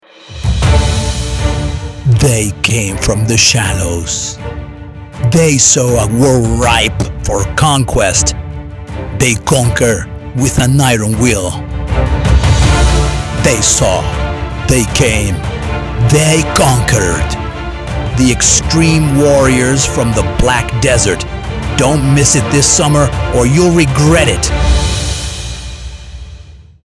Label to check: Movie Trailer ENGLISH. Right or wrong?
Right